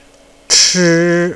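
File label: "chi1", Mandarin Chinese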